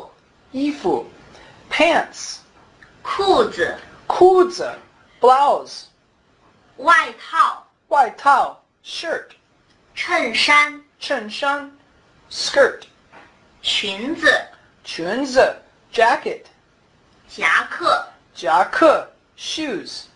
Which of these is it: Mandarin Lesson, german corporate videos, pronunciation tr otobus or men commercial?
Mandarin Lesson